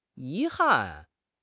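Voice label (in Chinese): "yi2 han4", Chinese; 汉语口语新时代-初级下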